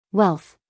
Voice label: "wealth", us female; everyone-can-use-english